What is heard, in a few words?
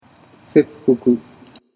"seppuku", Japanese